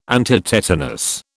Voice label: "antitetanus", British